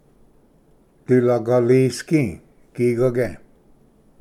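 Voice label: "diligalisgi gigage", Cherokee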